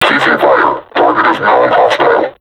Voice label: taunt, vo combine suppressor; Half Life Alyx